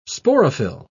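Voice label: "sporophyll", British English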